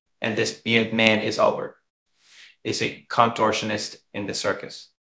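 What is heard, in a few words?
Voice Acting, Spanish, male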